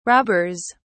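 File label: "robbers", British English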